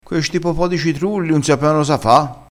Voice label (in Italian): "po po", vocabolario dialettale